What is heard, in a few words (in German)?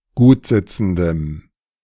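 "gutsitzendem", German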